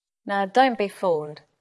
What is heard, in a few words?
Voice Acting es F